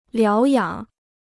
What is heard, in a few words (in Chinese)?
"疗养", Chinese